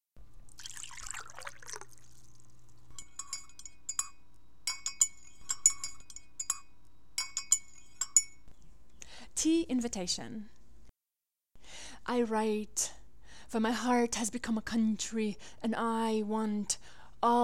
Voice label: English; Tea Invitation